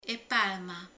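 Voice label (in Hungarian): "tenyér", Hungarian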